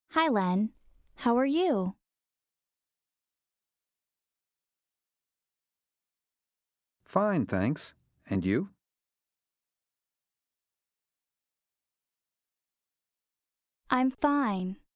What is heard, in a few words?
Conversation; StepUp